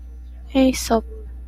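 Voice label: "Aesop", Japanese, female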